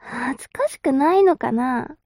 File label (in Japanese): mob 女子 台詞